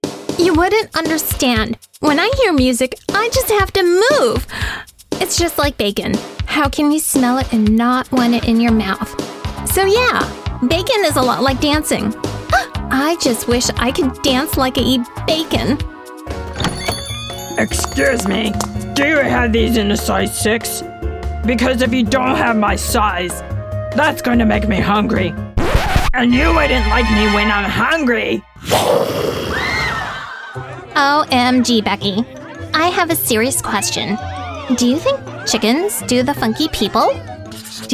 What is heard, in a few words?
Animation